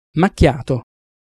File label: "macchiato", Italian Pronunciations